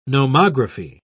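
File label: "nomography", British English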